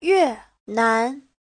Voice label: "yue4nan2", Chinese